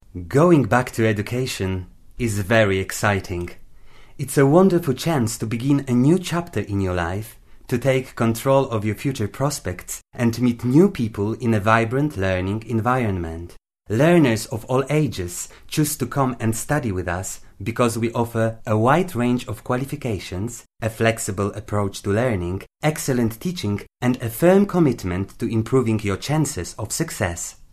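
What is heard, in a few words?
Polish, English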